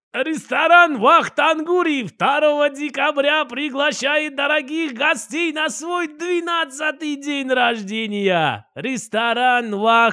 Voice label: gruzin

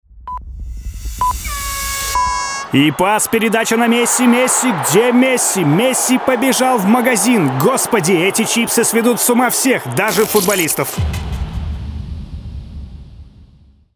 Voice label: sportivnyj kommentator; cherdancev

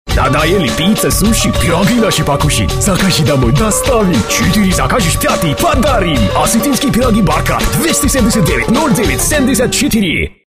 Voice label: kavkazec

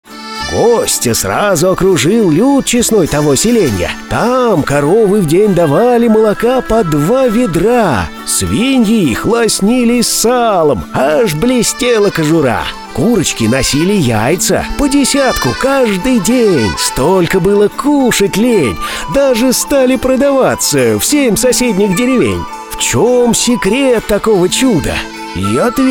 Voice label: rasskazchik

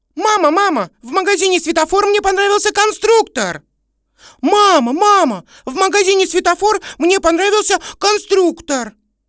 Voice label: rebenok malchik

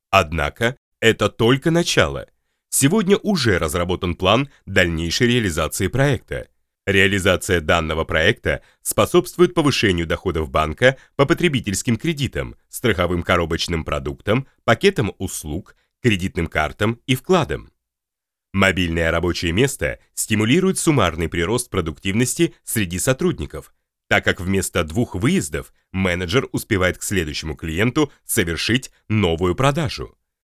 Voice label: golos euronews muzh razdrazhayushhij

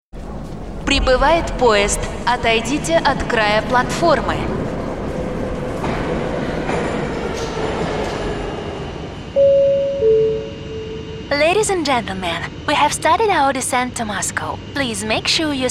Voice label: lang anglijskij

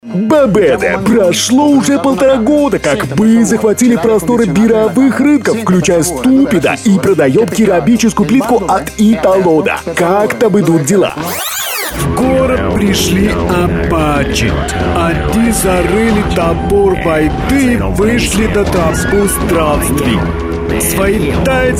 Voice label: perevodchik filmov

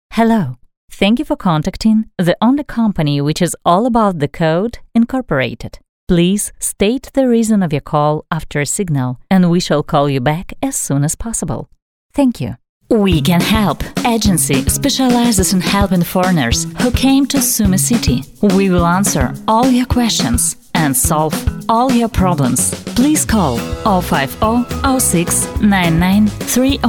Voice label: anglijskij yazyk

